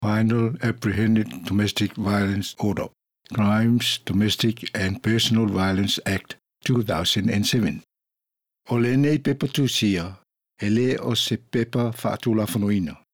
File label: introduction samoan